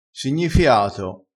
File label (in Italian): "signifiato", crespinese